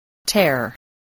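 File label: "tear", English word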